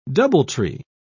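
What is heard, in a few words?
"doubletree", British English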